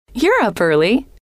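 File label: you Re Up Early, female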